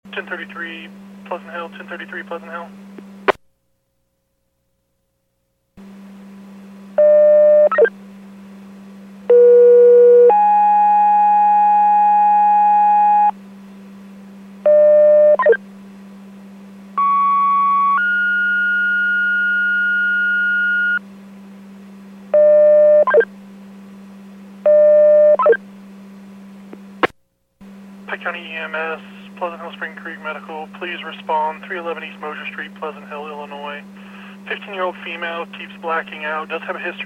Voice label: PAGING